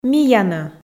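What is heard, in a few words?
"миянӧ", Komi, female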